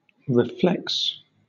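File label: "reflex", British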